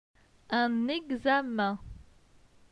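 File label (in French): "Un examen", French; phonetique; les voyelles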